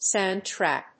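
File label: "sand trap", English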